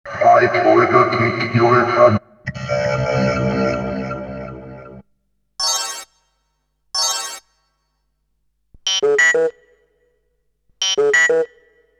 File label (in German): Vocoder Speech, Computerpiep; Bizarre Planet